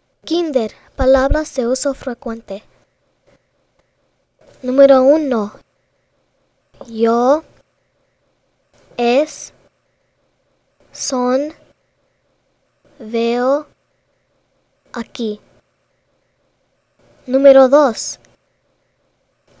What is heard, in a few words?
K High Frequency Words Spanish